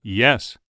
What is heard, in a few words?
"Yes", Human Male Middle Age